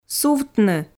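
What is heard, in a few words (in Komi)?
"сувтны", Komi, female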